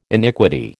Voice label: "iniquity", American

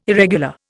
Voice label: "irregular", Indian

